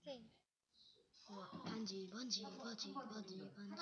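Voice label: "ring", spoken word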